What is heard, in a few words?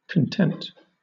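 "content", British English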